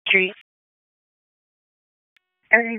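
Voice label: EMS Dispatch 1, P25; wake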